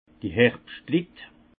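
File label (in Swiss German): "herbschtlit", Alsace Haut Rhin; vin; vendangeurs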